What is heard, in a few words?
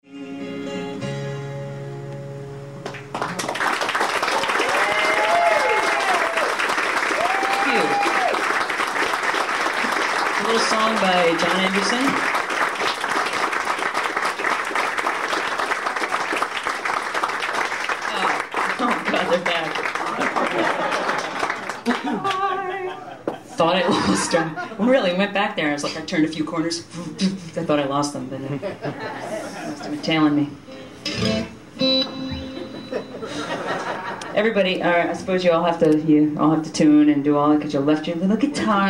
Live Patter; Tin Angel